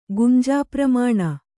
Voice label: "ಗುಂಜಾಪ್ರಮಾಣ", kannada